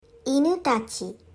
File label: "dogs", Japanese